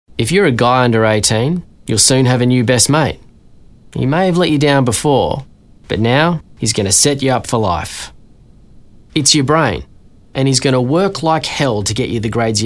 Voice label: male radio ad; young people